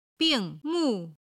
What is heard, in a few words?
"bing4 mu4", Chinese